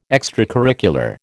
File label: "extracurricular", American